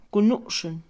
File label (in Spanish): bendecir, Mixe, Mujer; Religión; INALI